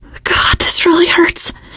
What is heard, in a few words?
going to die, teengirl; voice; source engine; left 4 dead 2; survivor